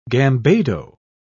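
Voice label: "gambado", English, British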